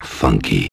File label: FUNKY, VOICES